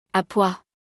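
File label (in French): "A pois", French; vocabulaire; le lexique de l'habillement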